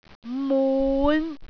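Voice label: "mun5", Cantonese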